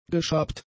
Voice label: "geschabt", German; adjectives

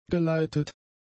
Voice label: "geleitet", German; adjectives

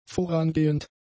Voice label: "vorangehend", German; adjectives

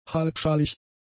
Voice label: "halbschalig", German; adjectives

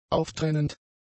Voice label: "auftrennend", German; adjectives

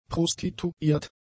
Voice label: "prostituiert", German; adjectives

